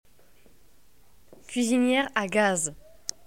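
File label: "gas cooker", French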